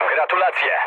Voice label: player killed enemy mood low, speech pol

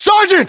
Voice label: rank sergeant, US

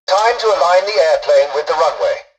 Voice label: tutorial dialogue, english; War Thunder

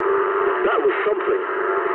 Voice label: eng trick mood high, speech eng; War Thunder

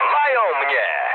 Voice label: aircraft destroyed mood med, speech pol; War Thunder

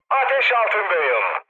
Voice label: on fire, mood med, speech tur; War Thunder

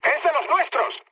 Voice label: player friendly fire, mood med, speech

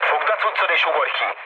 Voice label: bailout, speech hun; War Thunder

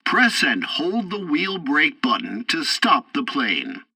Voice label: tutorial landing breaks, english; War Thunder

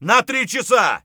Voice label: flank right, voice; Escape from Tarkov

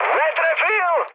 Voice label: bomb failure, speech cze, mood high; War Thunder

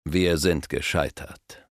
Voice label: We Failed, Speech German Advisors; Civ5

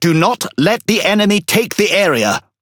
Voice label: Pirate Speech Quick Commands Ally Point; World Of Warships

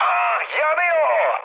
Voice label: on fire, mood high, speech tur; War Thunder

